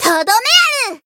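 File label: finish, Japanese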